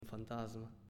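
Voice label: "Fantasma", Italian, male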